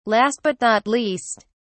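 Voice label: "last but not least", British English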